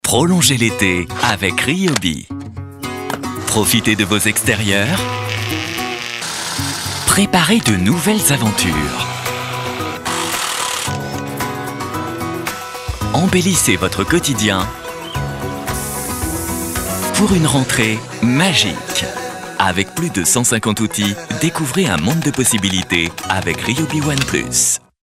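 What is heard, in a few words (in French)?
souriant chaleureux, voix off publicite, homme; RYOBI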